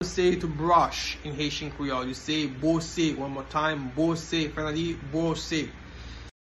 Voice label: To Brush in Haitian Creole Bwose pronunciation by a Haitian teacher